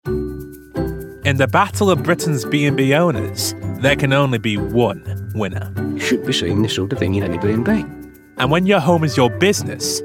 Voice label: Narrative, 20s